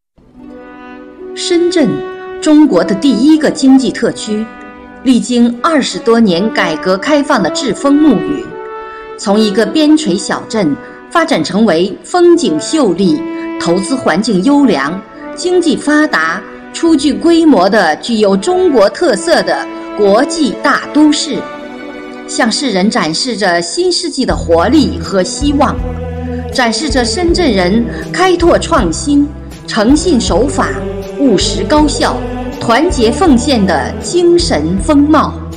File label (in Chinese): 深圳 大气